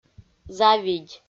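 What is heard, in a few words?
"завидь", Komi, female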